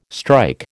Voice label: "strike", American